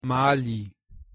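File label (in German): "mali", German; pronunciation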